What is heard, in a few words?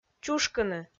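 "чушкыны", Komi, female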